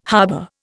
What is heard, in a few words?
"harbour", Indian